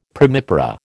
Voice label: "primipara", American